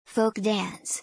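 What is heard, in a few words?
"folk dance", English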